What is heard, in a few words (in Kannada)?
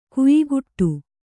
"ಖಯಖೋಡಿ", Kannada